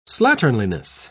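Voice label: "slatternliness", British English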